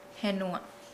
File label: Nukuoro; island